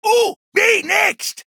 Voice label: Male Dwarf Attack